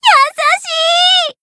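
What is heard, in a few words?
Voice healed, Japanese; nona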